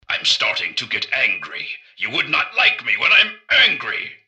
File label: generic robot alert idle; npc; mrgutsy